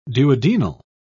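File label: "duodenal", British English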